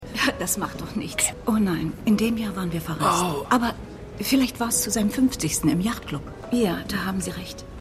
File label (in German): Trauernde